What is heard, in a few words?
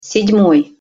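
"sed moj", Russian pronunciation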